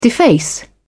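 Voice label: "deface", British English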